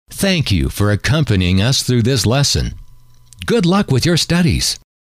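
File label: thank You For Accompanying Us Through, male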